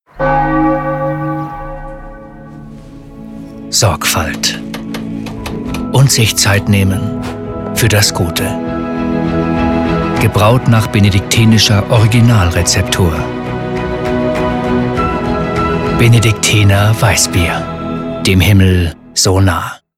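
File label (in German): Spots OFF, Sprecher, Männer; Benediktiner Weißbier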